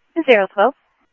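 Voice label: police radio scanner traffic, Mesa Simulcast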